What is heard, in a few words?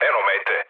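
Serbian near waypoint mood low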